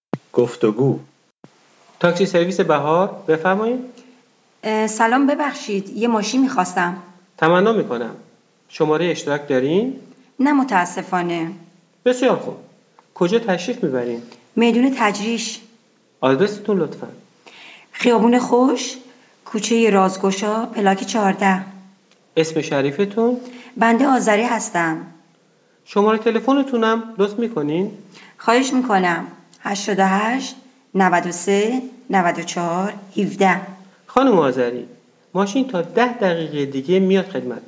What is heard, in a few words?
Dialog, persian